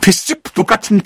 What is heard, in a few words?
sniper jeers